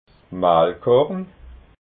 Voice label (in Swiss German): "mahlkorn", alsace